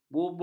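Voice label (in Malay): "bubuh", Malay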